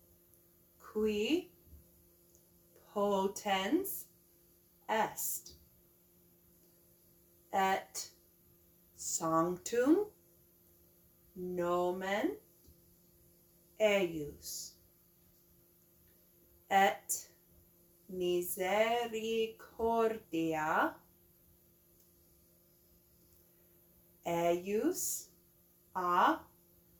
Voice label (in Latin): Quia fecit Pronunciation